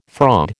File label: "fraud", American